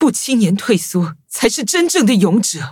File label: defeat, zh-hant